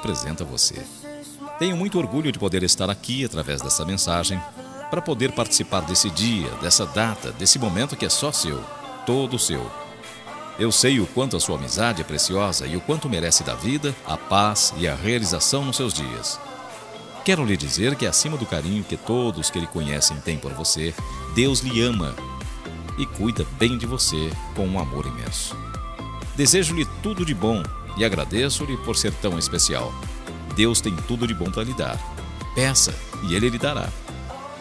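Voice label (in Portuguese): aniversario cunhado masculino, telemensagem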